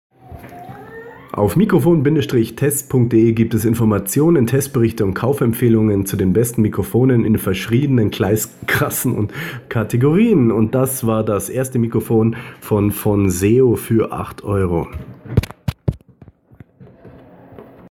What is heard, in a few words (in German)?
Smartphone Mikrofon Test Lavalier Mikrofon; Fonseo